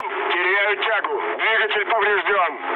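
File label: Russian damaged engine mood low, speech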